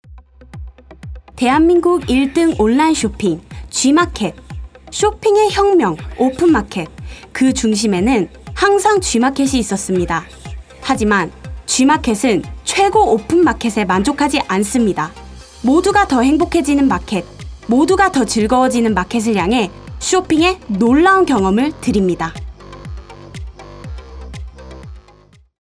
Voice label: 韓語女聲; G-Market

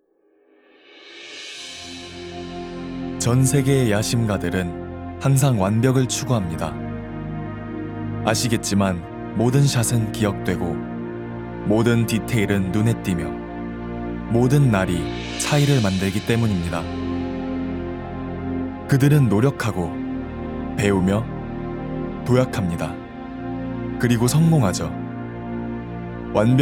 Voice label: 韓語男聲; Delta

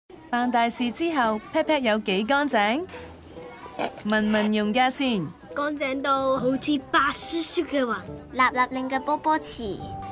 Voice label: 女童; Andrex HK 皇冠濕廁紙

